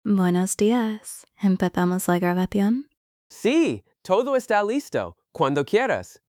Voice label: two speaker dialogue